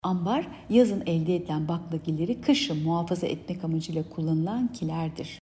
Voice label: turkce